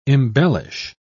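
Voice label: "embellish", English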